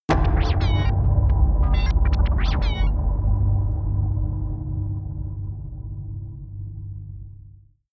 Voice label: robot speech; impacts; hits-explosionen